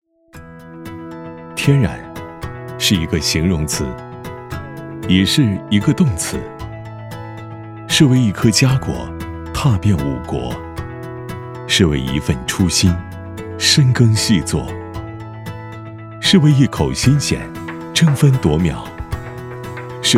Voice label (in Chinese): 男 宣传 食品企业宣传片 亲切自然讲述